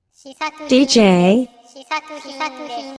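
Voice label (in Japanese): DJの言い方（女性）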